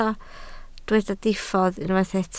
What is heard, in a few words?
"diffodd", Welsh